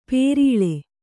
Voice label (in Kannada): "ಪೇರೀಳೆ", Kannada